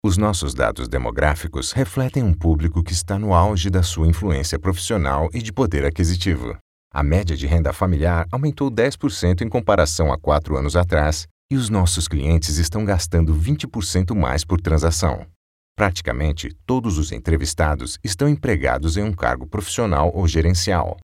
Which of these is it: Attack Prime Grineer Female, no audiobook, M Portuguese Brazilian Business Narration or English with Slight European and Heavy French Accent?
M Portuguese Brazilian Business Narration